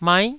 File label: "mai9", Taiwanese syllable